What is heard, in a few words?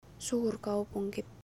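Finnish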